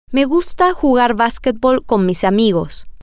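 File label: Spanish, female; i Like To Play Basketball With My Friends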